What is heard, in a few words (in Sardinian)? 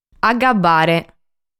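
"Agabbare", Sardinian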